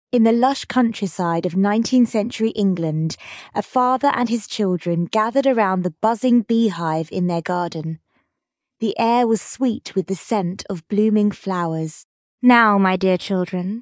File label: fem brit; A Swarm of Bees in May